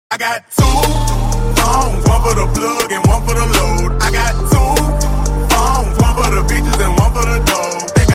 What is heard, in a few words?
EARRAPE GOT 2 PHONES sound